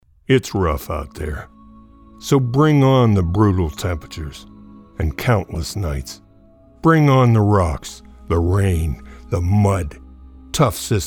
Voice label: Commercial